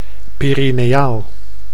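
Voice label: "perineaal", Dutch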